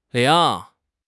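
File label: "ling2", Chinese; HSK2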